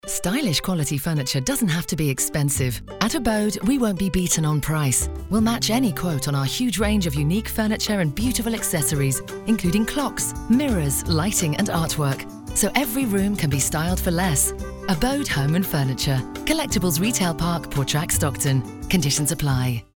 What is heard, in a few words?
Home Furniture Radio Ad; Abode